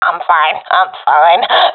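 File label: scared, professional female; vo; Counter Strike Model Pack; CS:GO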